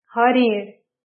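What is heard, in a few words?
"deer", English; grade4; vertebrates invertebrates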